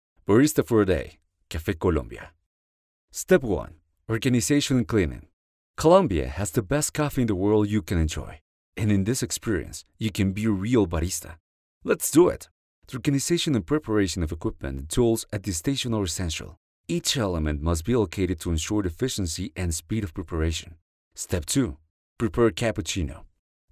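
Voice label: Spanish Latin America male voice over, English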